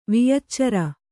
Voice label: "ವಿಯಚ್ಚಾರಿ", Kannada